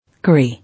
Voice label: "Curry", English